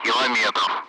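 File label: Speech, Russian; Allied Flight; DCS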